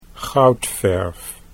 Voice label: "goudverf", Dutch